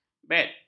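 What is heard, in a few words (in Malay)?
"bet", Malay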